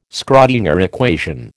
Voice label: "Schrödinger equation", American